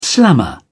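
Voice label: "slammer", British English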